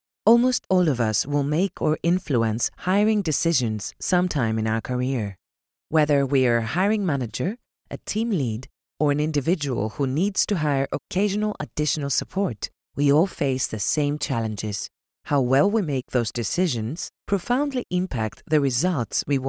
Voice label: english e-learning